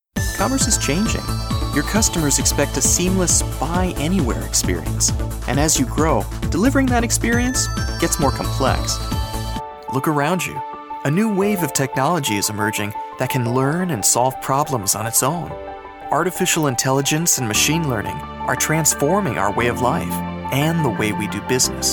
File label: VO eLearning